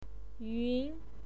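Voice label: "yun2", Mandarin Chinese pinyin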